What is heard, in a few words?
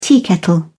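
"teakettle", British English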